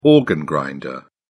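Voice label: "organ grinder", British English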